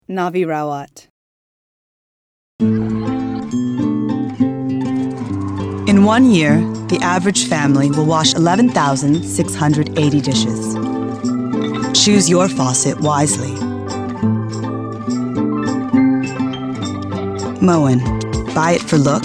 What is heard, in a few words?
commercial; Innovative LA